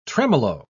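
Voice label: "tremolo", spoken word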